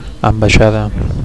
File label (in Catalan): "ambaixada", Catalan